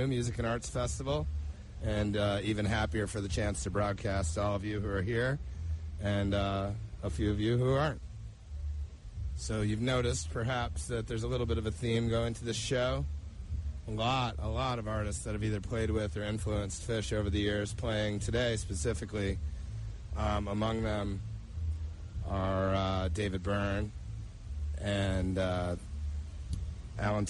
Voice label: radio banter; Bonnaroo

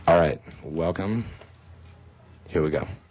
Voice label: radio banter

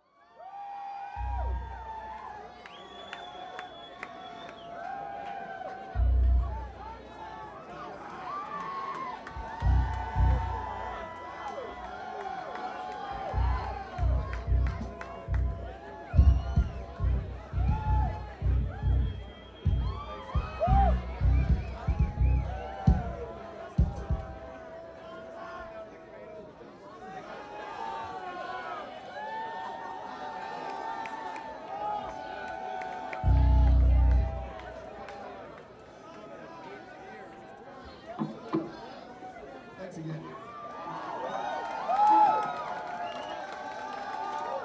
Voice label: banter

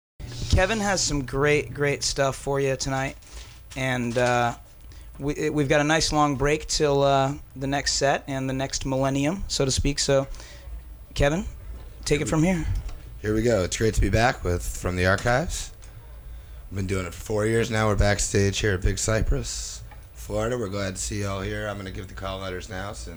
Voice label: radio banter; Big Cypress

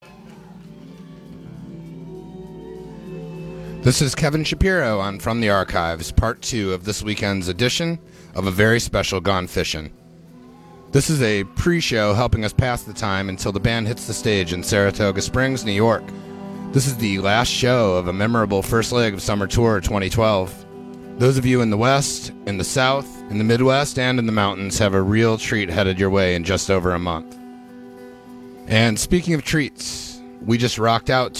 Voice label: radio banter; SPAC

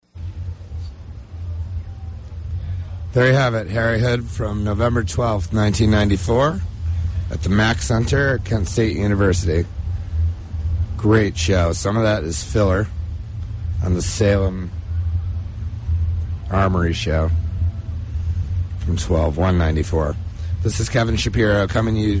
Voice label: radio banter; Bonnaroo

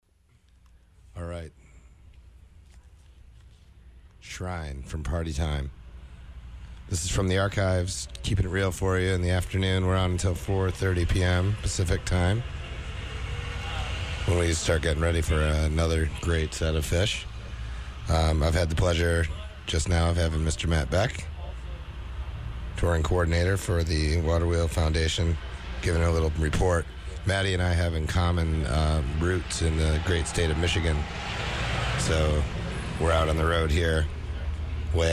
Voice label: radio banter; Festival 8

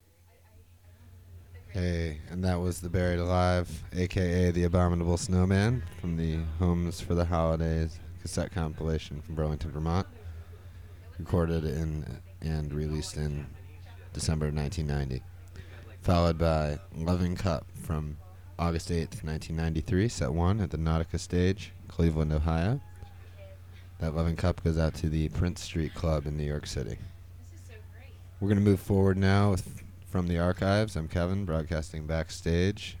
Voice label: radio banter; Lemonwheel

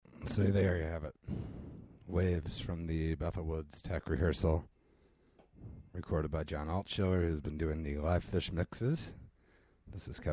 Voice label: radio banter; Super Ball IX

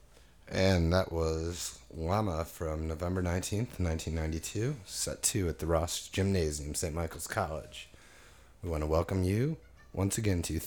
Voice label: radio banter; Big Cypress

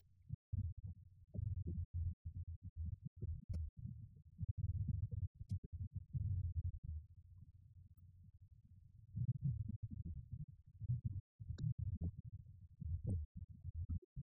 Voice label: radio banter; The Clifford Ball

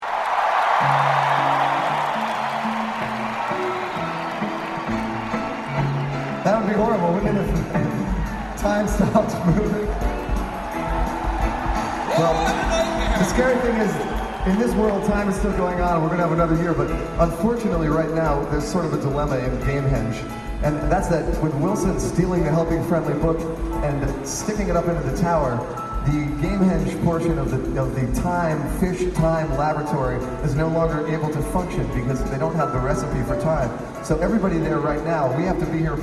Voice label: Fly Famous Mockingbird Monologue; New York, NY; Madison Square Garden

